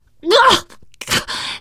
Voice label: boomer reaction, teengirl; source engine; left 4 dead 2; survivor

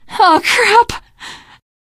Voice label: reaction negative, teen girl; survivor voice

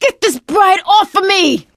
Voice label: wedding witch attacking, survivor voice; video game; source engine; left 4 dead 2; producer

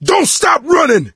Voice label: yell run; voice; source engine; left 4 dead 2

